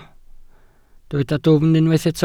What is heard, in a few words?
"dwfn", Welsh